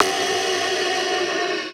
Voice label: Vox F Going